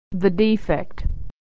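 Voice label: "the defect", American English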